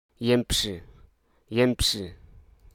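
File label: Hruso Aka; chimpanzee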